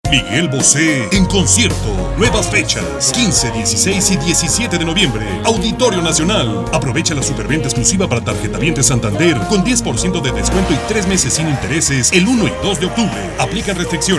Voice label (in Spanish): Radio